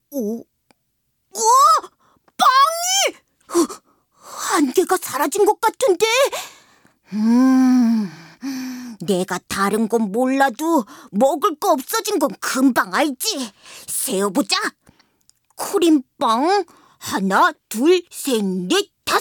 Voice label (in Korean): 통통한 남아 샘플